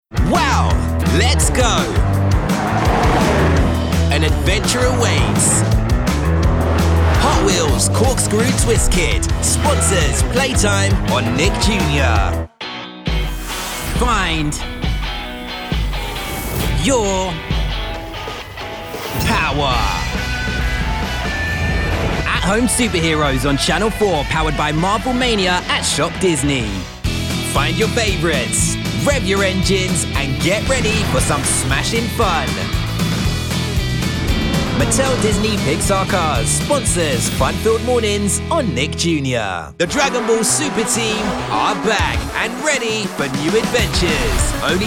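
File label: kids promo commercials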